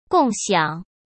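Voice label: "共享", Mandarin Chinese; hsk; chinese-flashcards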